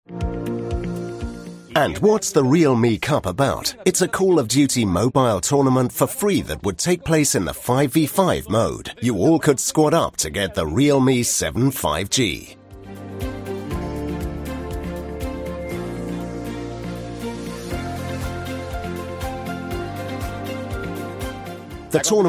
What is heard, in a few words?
MALE ENGLISH